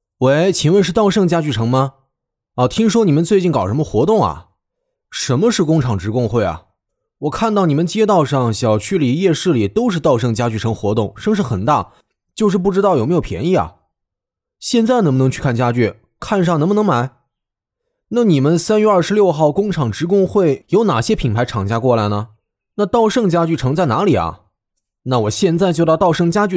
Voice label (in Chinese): 男 家具城; MG-道胜家具城